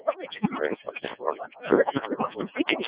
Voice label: decoded speech, 7177KHz